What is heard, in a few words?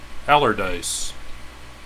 "ALLARDICE" modern